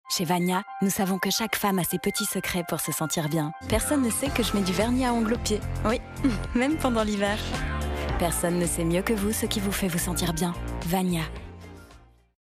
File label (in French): spot; Vania